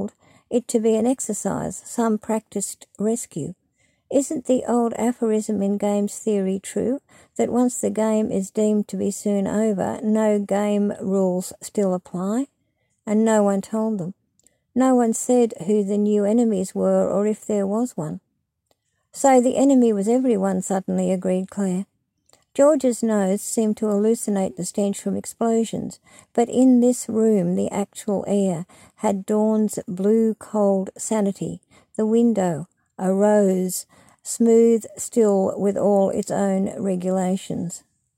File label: reading poem panic; ox in metal; quemar press; jennifer maiden